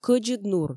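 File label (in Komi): "кӧдзыд нур", Komi, female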